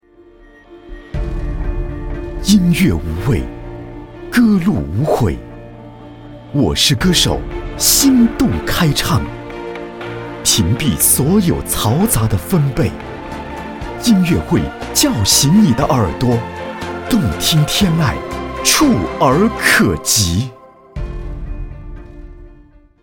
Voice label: 男, 音乐会; 我是歌手音乐会

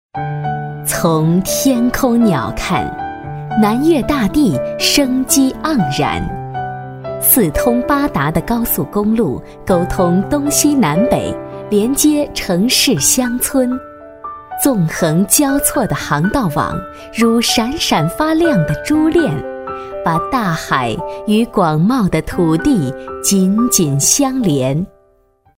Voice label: 女 专题 大气 正式, 国语女声配音; 大气专题; 技工学校

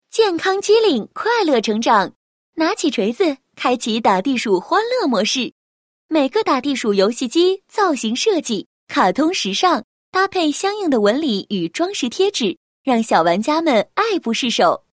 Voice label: 女 产品介绍 打地鼠游戏机玩具宣传简介

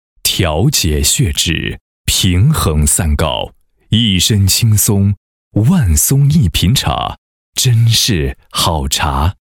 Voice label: 男, 解说; 南海这片壮阔海域的前世今生

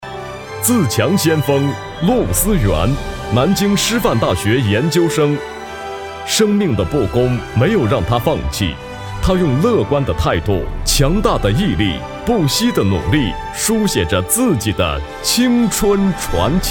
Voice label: 男, 旁白, 颁奖; 栖霞区颁奖

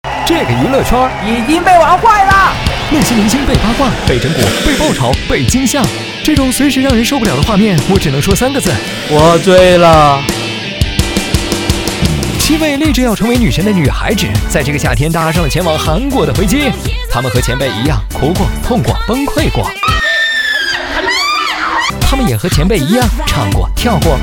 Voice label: 男, 电视节目, 幽默风; 男神女神

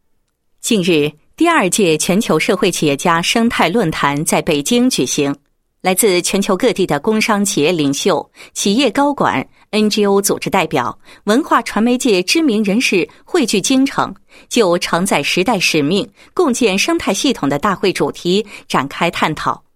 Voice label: 女, 新闻; 恒宇环保亮相全球社会企业家生态论坛

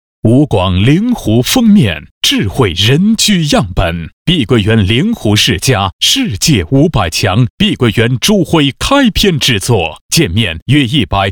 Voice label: 男, 广告; 碧桂园酃湖世家

